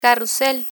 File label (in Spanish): "carrusel", Spanish